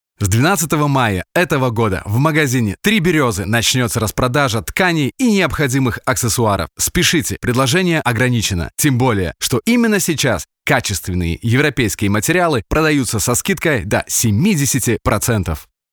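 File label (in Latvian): RU Reklama, advert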